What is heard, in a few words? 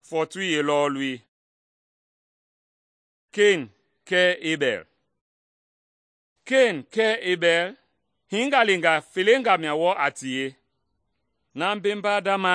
mende bsl nt drama; good news mende kpa